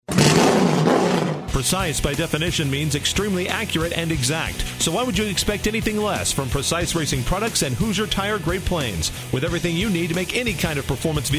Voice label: Commercial